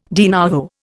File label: "de nouveau", Indian